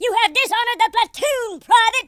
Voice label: "oh dear", Drill Sergeant; speech